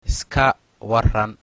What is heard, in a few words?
how are you, somali